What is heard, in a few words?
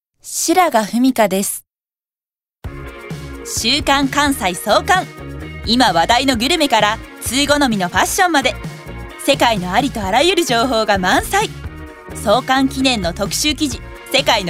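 commercial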